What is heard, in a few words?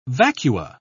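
"vacua", British English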